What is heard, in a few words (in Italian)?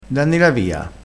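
"da la via", dialettale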